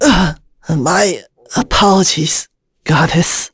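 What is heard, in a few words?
Vox Dead, voices heroes English; Lucias; kingsraid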